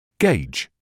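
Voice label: "gauge", British English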